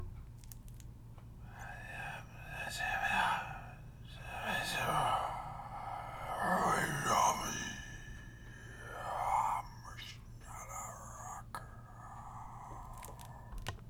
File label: Demonic Voice